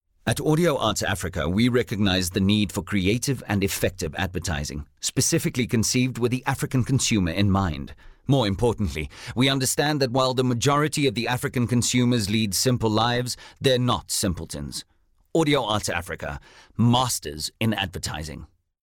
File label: English Corporate